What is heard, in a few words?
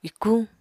"ikuñ", Apatani